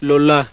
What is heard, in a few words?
melpa